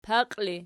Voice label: "paqi", Archi